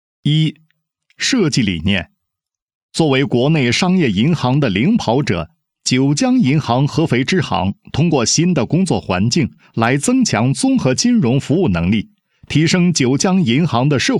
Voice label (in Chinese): 男, 项目, 设计说明; 九江银行